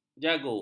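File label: "jago", Malay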